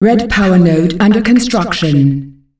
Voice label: "Red powernode under construction", announcer female; Unreal Tournament; ut2004